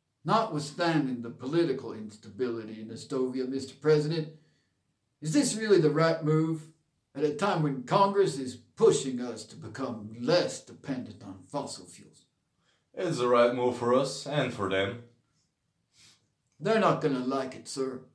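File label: US English TEXAN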